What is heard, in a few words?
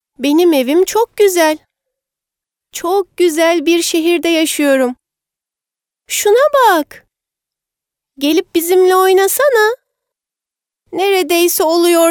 fem children